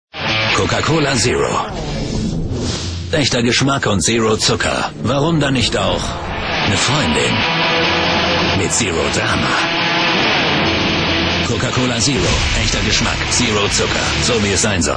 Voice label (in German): Werbung; Coca-Cola Zero